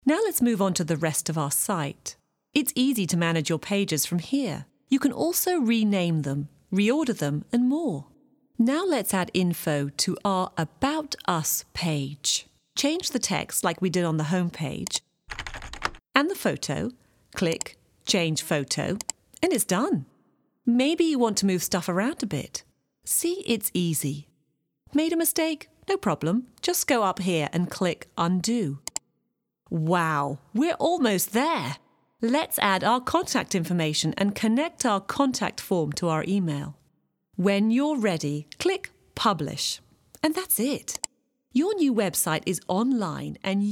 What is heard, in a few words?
Elearning British Accent